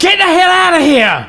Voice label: Run, male, voice commands; svencoop